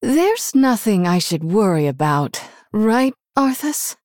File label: Female Human Start; Arthas